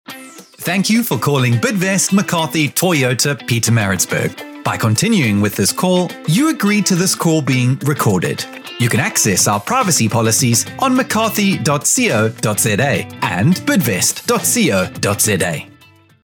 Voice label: SA Website VO